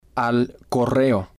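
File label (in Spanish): al Correo, spanish, male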